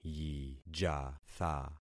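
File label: "yijatha", concatenated syllables; Affixing experiment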